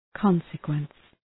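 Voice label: "consequence", English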